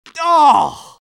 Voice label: scout negative vocalization; tf2